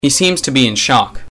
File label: shock; colony7; Gordon